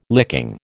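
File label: "licking", American English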